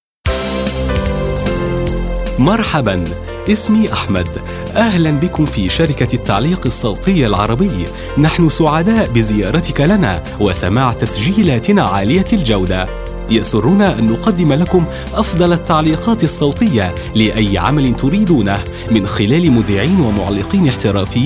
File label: Male Arabic showreel; the arabic voice over company